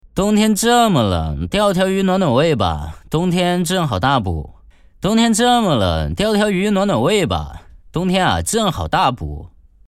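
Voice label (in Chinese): 冬天这么冷, 四川话 男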